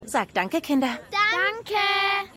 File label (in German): Maedchen Junge; Alana; Belle; Barney